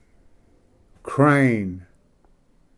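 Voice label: "crane", English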